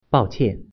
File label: "sorry", Mandarin